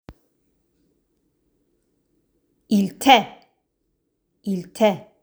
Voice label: in Italian

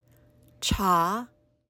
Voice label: in Mandarin

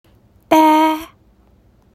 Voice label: Teochew

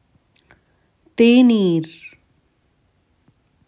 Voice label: Tamil

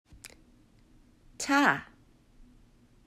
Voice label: says tea in Korean

